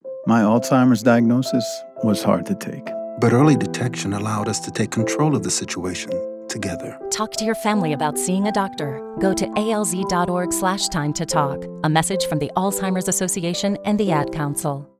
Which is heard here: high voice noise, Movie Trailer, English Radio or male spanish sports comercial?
English Radio